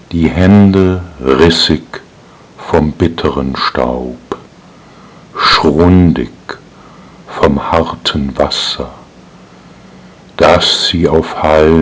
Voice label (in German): Die weibliche Stimme